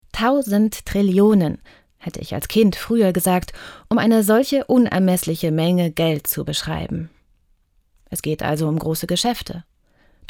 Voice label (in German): kommentar, sprecherin; ndr info